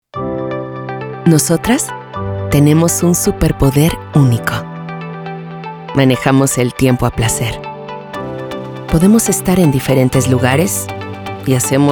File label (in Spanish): Amable Sonriente Cercana